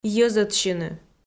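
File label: "йӧзӧдсьыны" Komi, female; audio dict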